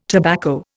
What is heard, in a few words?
"tobacco", Indian